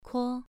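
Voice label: "kuo2", Chinese pronunciation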